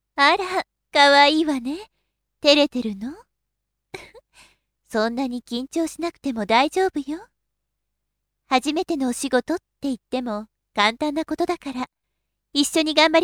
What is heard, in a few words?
female